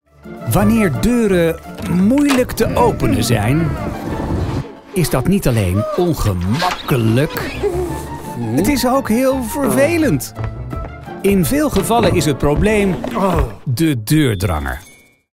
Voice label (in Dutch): voiceover komisch geanimeerd; ASSA ABLOY